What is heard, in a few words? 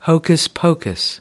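"hocus pocus", American English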